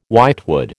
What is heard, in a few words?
"whitewood", American